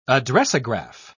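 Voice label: "addressograph", British English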